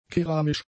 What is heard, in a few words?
"keramisch", German; adjectives